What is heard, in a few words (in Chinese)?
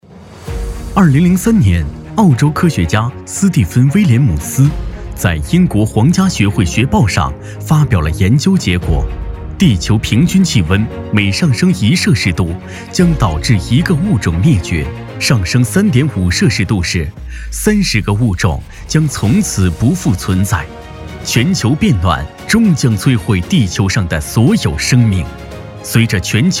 宣传类生态保护